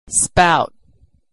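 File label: "spider" "spout", American English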